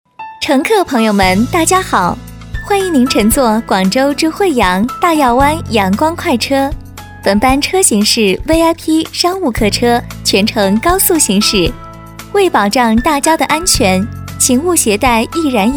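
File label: Chinese, female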